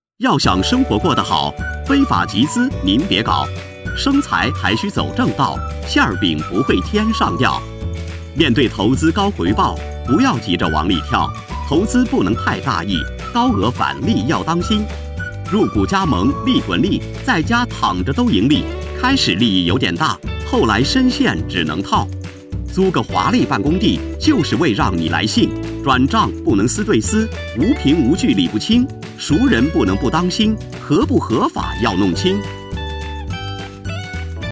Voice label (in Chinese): 男 飞碟说 守住钱袋子样